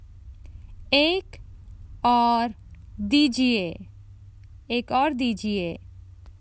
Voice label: Another one please, Common Hindi Phrases for travel